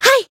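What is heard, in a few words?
Voice Japanese; saki; limitbreak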